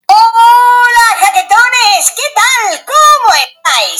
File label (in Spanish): hola jaquetones original fuerte